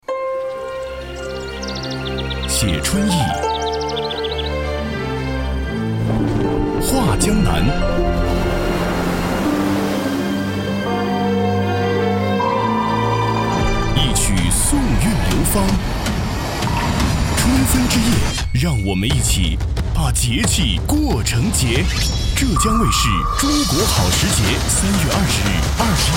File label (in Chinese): 男 TVC广告 激情年轻; 浙江卫视片花